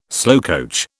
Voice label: "slowcoach", British